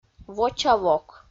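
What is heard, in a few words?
"воча вок", Komi, female; audio dict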